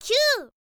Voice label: info girl